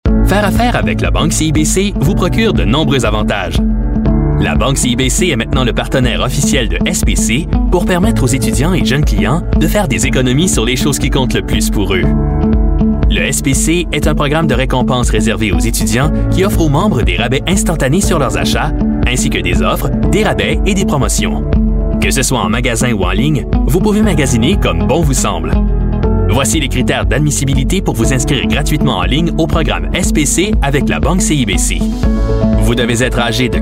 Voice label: french voice over male narrator

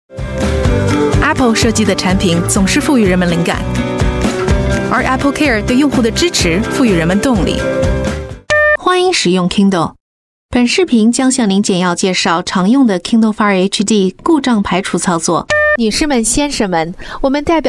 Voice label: chinese voice over female commercial

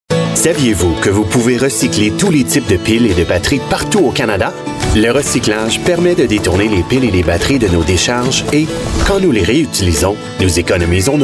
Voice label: french voice over male narrator

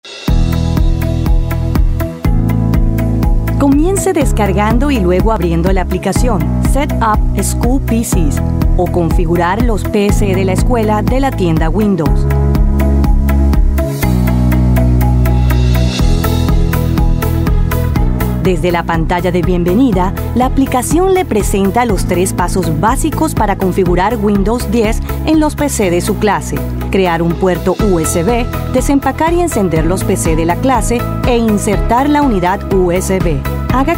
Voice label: spanish voice over female elearning

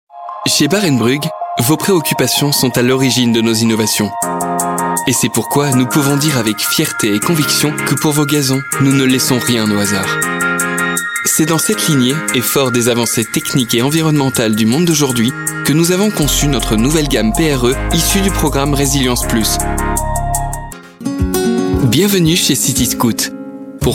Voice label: french voice over male narrator

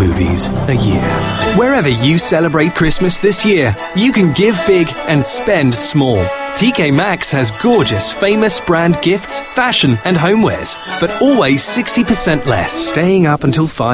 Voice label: english british voice over male

